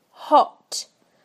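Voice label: "hot", British English; PictureDictionary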